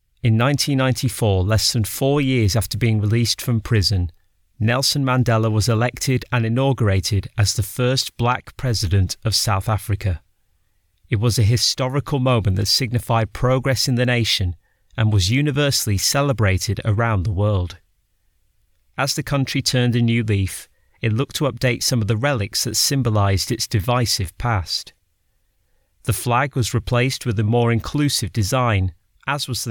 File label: Cricket documentary